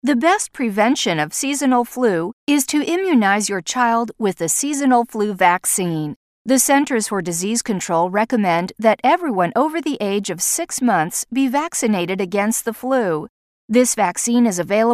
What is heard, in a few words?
flu hotline english sub menu